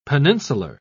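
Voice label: "peninsular", English, British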